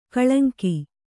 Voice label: "ಕಳಂಕ", Kannada

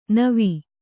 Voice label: "ನೆವ", Kannada

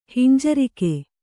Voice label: "ಹಿಂಜರಿಕೆ", Kannada

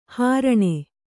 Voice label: "ಹಾರಣಿ", kannada

